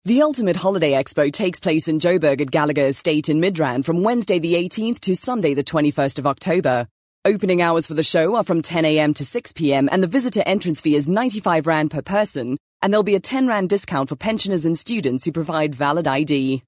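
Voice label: South African English Corporate; GoLocalise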